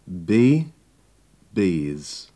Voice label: bee bees, english